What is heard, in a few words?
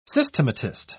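"systematist", British English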